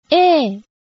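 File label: "ee", Japanese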